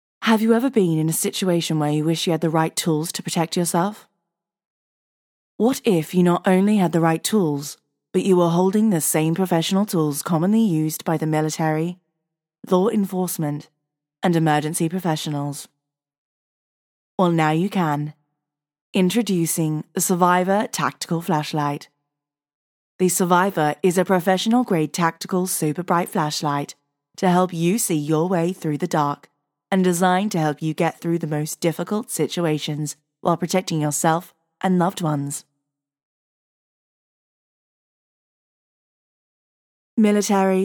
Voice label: UK; Survivor Flashlight; en-AU